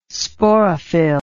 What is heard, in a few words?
"sporophyll", english